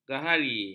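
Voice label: "gahari", Malay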